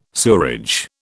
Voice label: "sewerage", British